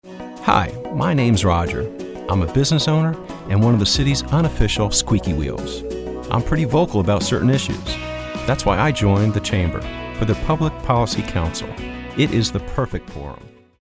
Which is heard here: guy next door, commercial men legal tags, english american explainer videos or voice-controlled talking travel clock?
guy next door